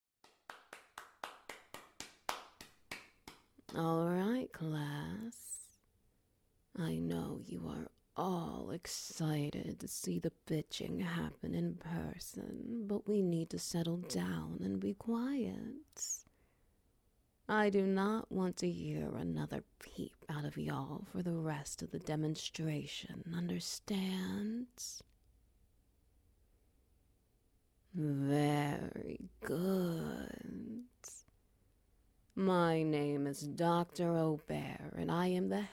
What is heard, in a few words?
SFX Wet Noises; Bitching 101